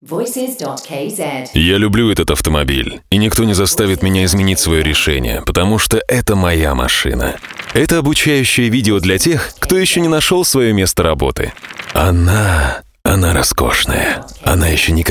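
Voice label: Russian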